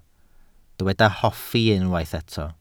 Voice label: "hoffi", Welsh

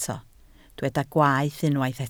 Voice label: "gwaeth" Welsh

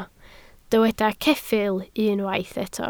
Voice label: "ceffyl" Welsh

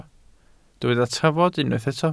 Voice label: "trafod" Welsh

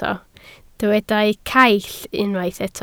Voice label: "caill", Welsh

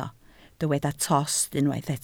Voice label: "tost", Welsh

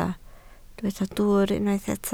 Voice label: "dwwr", Welsh

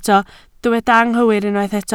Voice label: "anghywir", Welsh

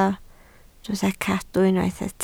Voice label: "cadw" Welsh

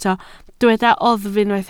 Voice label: "oddf" Welsh

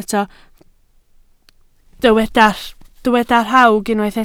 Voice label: "rhawg", Welsh

